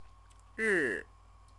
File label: "ri", Chinese; day; noun